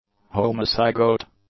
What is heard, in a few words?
"homocygote", English